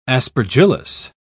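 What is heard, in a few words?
"aspergillus", English, British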